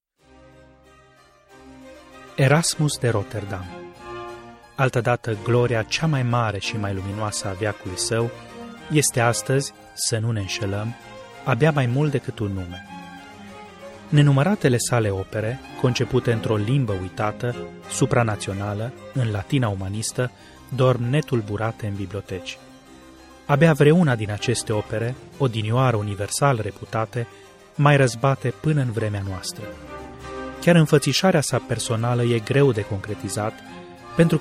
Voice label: Romanian M Documentary